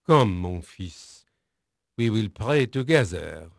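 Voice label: French male, voice; Pirates Of The Caribbean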